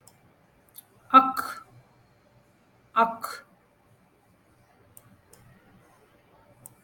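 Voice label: special character sounds like 'ack", Tamil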